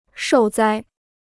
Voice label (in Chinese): "受灾", Chinese